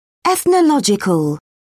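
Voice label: "ethnological", British English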